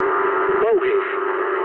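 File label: English enemy detected mood low; War Thunder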